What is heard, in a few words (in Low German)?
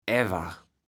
"awärr", kaevels platt